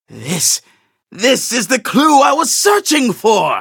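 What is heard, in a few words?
levelup, English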